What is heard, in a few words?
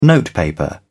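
"notepaper", British English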